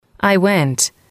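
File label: i went, English, female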